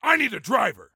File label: Need Driver, Soldier Male; Soldier Male 03